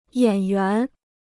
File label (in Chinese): "演员", Chinese